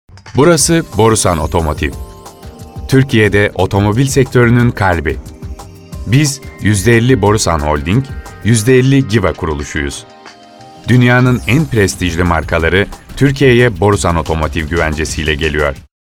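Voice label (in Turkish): sicak samimi, seslendirme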